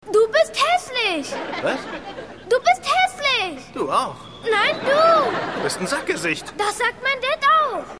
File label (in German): Junge, Stimmproben; Seinfeld